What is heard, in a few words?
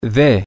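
of From, spanish, male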